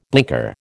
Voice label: "blinker", American